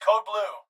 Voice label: Hospital Pa Voice; Blastwave

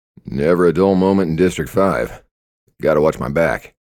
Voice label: thug response; Pitch Black - A Detective's Demise

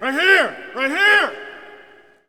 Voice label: Hockey Male "Right Here"; Hockey Vocal Sounds

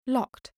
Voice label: "locked", voice overs

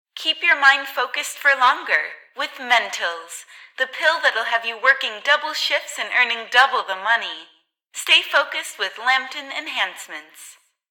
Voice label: advert; Pitch Black - A Detective's Demise

